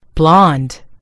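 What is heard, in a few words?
"blond", American English; gcompris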